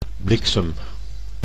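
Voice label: "bliksem", Dutch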